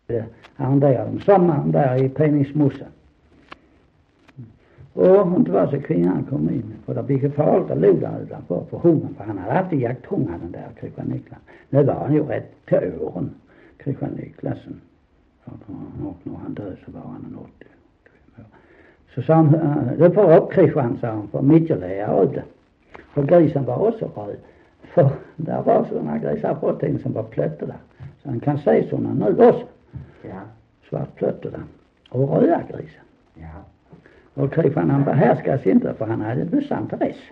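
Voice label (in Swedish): Sankt Olof Skåne, dialekter; Folkminnen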